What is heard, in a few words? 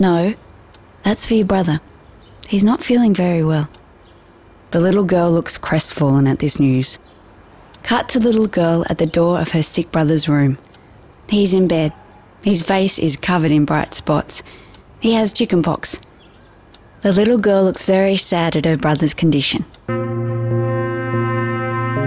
Voice Over